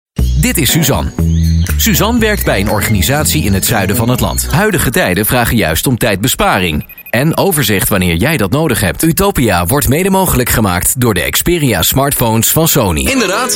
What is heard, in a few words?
Flemish General, Male